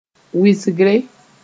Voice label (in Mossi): "wɩsgre", moore